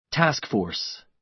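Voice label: "task force", American English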